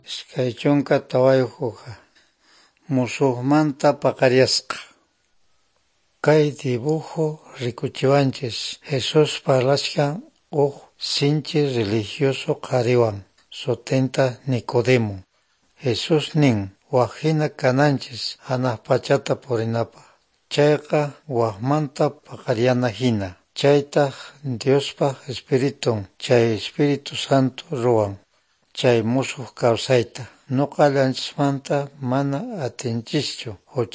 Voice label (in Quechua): good news quechua norte de bolivia apolo; bible